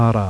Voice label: tap r